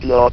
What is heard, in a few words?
"NO", votrax; VIDEO GAMES